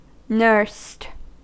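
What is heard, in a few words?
"nursed", US English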